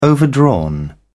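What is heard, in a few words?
"overdrawn", British English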